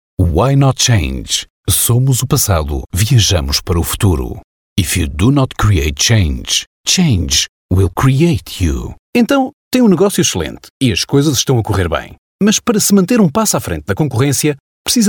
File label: Portuguese